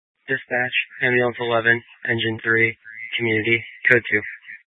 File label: Fire Dispatch